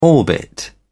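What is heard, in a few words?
"orbit", English, British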